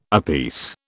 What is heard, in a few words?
"apiece", British